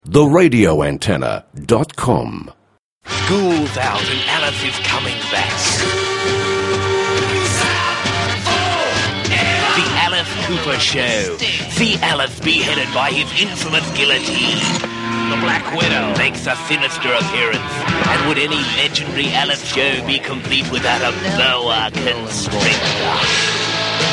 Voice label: Concert promo; Aircheck; 4IP